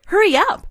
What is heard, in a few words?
Hurry Up, announcer female